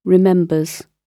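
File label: "remembers", British English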